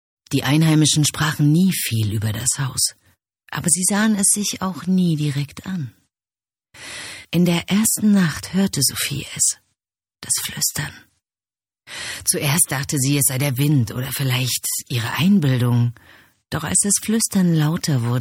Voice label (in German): Hörbuch